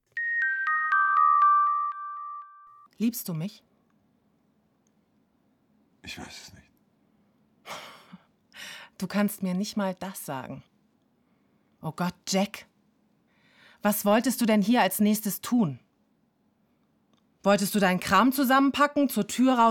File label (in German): Sprecherdemo Hörspiel; König der Fischer